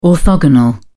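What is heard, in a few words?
"orthogonal", British English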